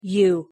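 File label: "u", English; pronunciation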